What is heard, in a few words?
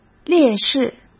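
"烈士", Mandarin Chinese; chinese-flashcards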